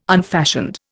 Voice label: "unfashioned", Indian